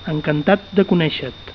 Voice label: nice to meet, Catalan; Encantat de conèixe'r-te.